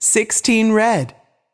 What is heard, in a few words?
voice over, roulette; frenchroulette3